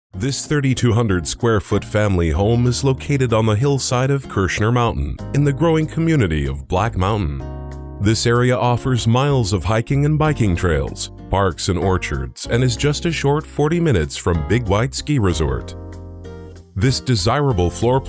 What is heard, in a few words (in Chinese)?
外籍英男 自然舒适 房屋介绍